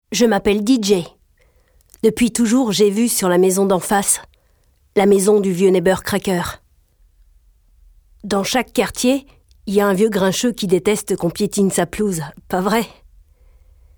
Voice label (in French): voix parlees, cartoons jeux videos; Monster house